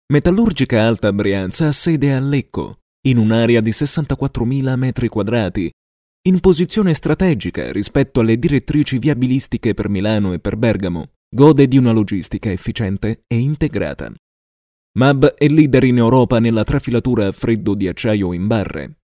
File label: locutor italiano speaker doppiatore italian voiceover